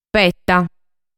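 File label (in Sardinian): "Peta", Sardinian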